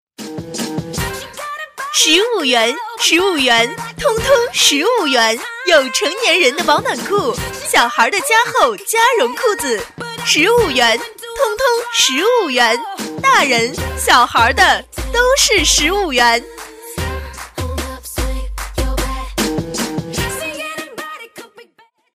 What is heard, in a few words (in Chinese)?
女 15元甩卖, 国语女声配音 彩铃广告